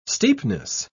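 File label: "steepness", British English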